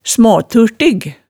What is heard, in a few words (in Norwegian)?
"smaaturtig", hemsedalsmaalet